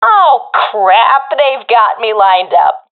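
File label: taking fire, professional female; vo; agents; Source Model Packs; Counter Strike Model Pack; CS2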